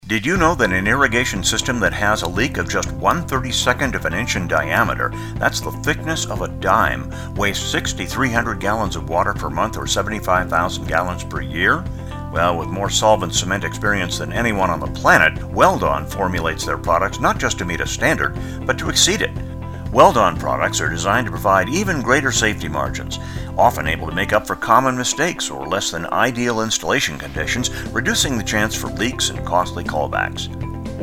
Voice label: Commercial; Weld-On